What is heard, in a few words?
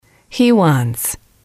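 "he wants", English, female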